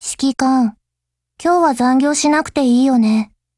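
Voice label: HELLO, Japanese; GF Alarm; GepardM1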